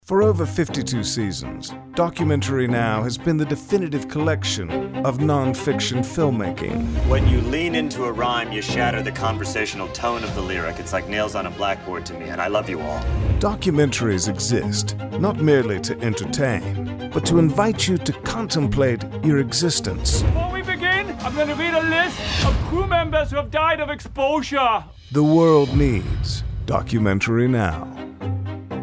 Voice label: TV Show Announcer Parody